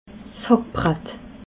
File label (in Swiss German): "hackbratt", alsace